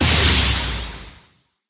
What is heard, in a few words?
voice, dubbing